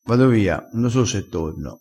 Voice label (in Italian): "manda panni", crespinese, vocabolario dialettale